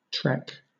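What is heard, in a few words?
"trek", British English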